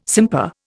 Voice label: "simper", Indian